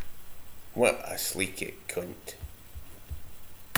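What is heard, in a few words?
"sleekit", slang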